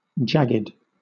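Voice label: "jagged", British English; pronunciations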